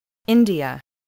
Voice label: "india", English